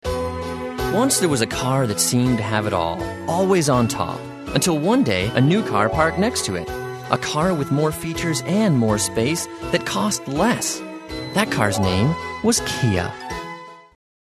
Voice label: english a male